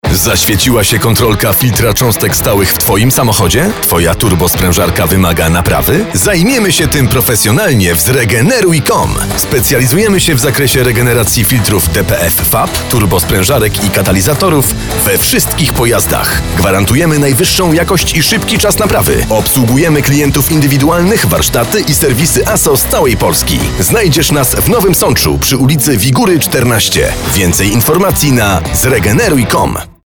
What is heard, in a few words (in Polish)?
spot radio; Zregeneruj com